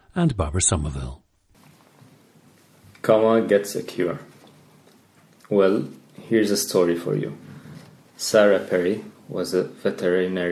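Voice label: lebanon, dialects of English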